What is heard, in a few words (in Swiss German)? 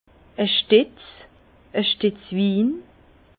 "stetz", Bas Rhin; vin; broc